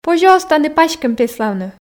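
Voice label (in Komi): позьӧ оз тані паськӧм пеславны, Komi, female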